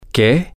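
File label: what, Spanish, male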